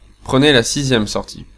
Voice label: French male